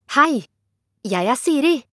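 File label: Norwegian Bokmål, Norway; iOS 26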